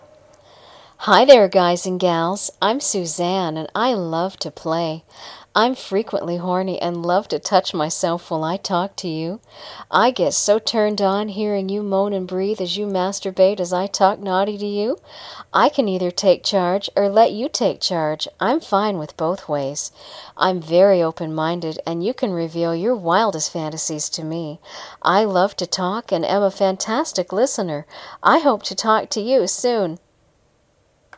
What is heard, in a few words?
Voice Greeting